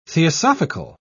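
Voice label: "theosophical", British English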